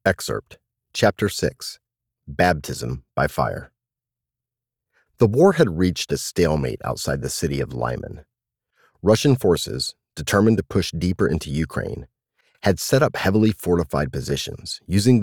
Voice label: Military Science Fiction Audiobook Narration